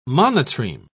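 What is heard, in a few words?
"monotreme", British English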